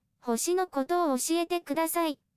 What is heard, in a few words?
HUMAN Voice in KOKORO output